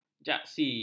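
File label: "jaksi", Malay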